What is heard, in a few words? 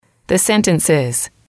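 "sentences", English, female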